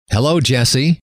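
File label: hello, male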